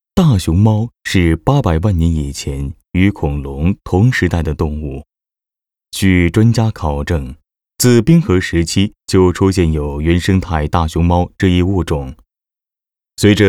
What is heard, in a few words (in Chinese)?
专题 把大熊猫推向世界 讲述